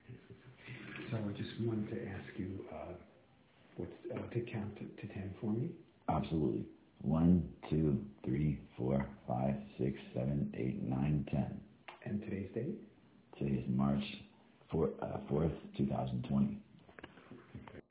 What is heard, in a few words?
Voice Alter; Vocal cord surgery, or Thyroplasty, is offered by the New York Voice Center